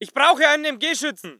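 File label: voice german infantry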